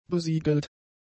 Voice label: "besiegelt", german; adjectives

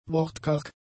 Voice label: "wortkarg", German; adjectives

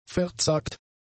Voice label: "verzagt", German; adjectives

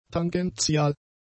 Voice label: "tangential", German; adjectives

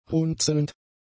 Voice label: "runzelnd", German; adjectives

